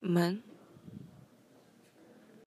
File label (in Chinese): "men5", 汉字语音; chinese-voice